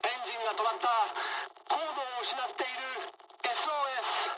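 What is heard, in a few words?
Japanese damaged engine mood low; War Thunder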